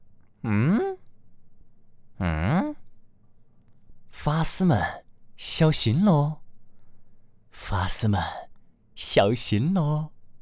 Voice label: 川话游戏角色; 川话游戏角色邓艾

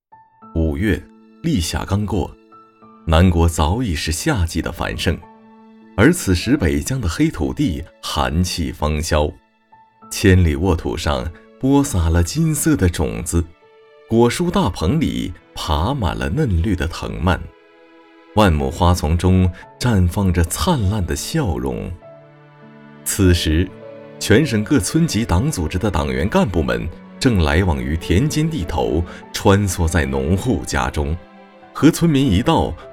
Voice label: 纪录专题

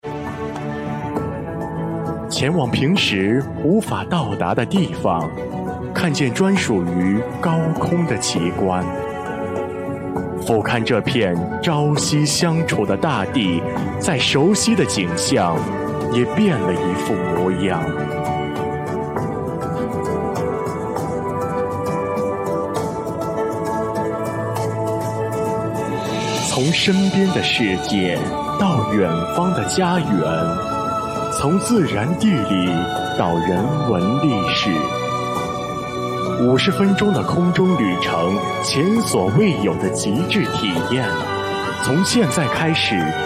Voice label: 宣传片; 航拍中国

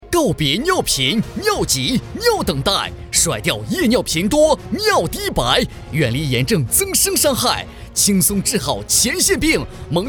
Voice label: 男科广告; 大力丸

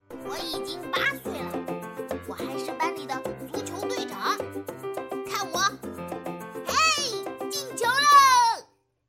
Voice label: 正太 小活泼

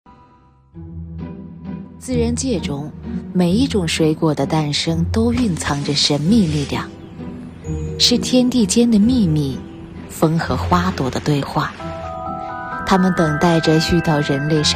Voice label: 纪录片

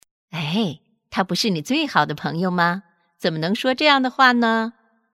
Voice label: 温柔

